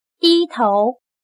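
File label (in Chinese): "低头" Mandarin Chinese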